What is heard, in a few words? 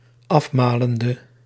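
"afmalende", Dutch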